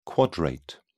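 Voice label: "quadrate", English, British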